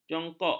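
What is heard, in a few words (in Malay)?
"jongkok", Malay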